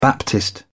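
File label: "baptist", British English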